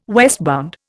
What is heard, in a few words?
"westbound", Indian